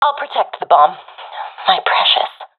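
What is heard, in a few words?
guarding live bomb, professional female; vo; Counter Strike Model Pack; CS:GO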